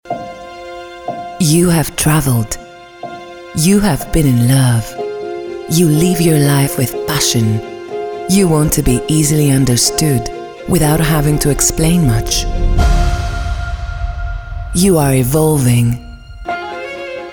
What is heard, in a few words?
english promos